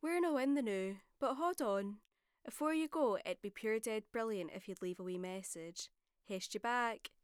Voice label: Scottish